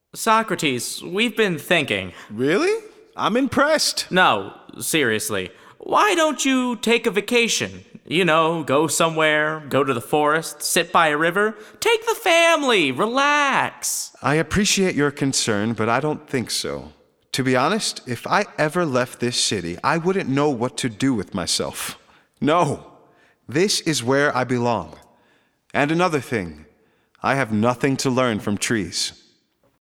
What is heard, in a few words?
Dialog